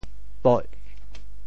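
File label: "poih4", Teochew; 揭阳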